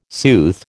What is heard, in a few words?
"sooth", American